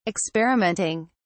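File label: "experimenting", English, British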